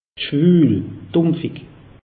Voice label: "schwuul", alsace; meteo

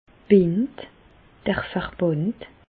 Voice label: "bind", Bas Rhin; medecine; bandage

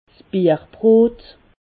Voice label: "bierbrot", Alsace

